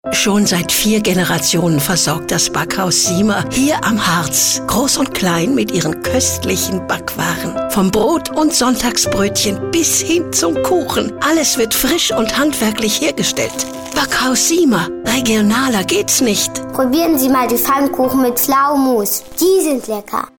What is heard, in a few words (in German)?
Werbespots; Backhaus Siemer